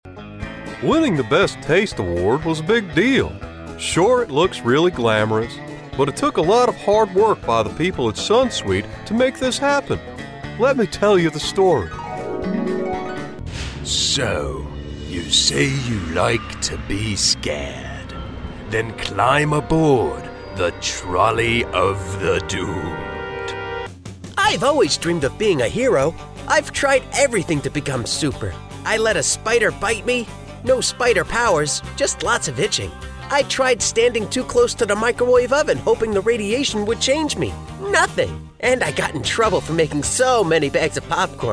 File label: Character Voices